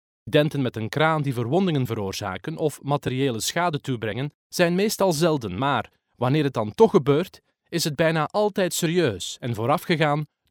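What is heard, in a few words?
Flemish Belgium, male, voice over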